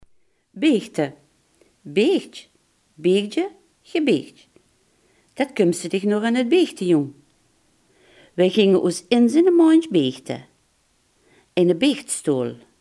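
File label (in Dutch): "biechten" Limburgish dialect word; biechten, beechte (beechtj, beechdje, gebeechtj)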